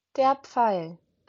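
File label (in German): "Pfeil", German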